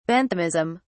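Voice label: "benthamism", English, British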